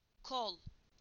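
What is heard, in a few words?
"arm", Turkish; PictureDictionary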